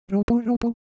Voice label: Japanese Texture, Ideo Phones